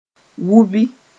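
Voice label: "wubi", Mooré; educate (children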